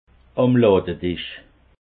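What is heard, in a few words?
"ladedisch", Alsatian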